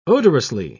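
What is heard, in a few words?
"odorously", English, British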